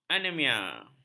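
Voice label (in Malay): "anemia", Malay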